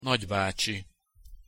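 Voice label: "nagybacsi", Hungarian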